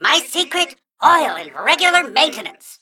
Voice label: Mech Greetings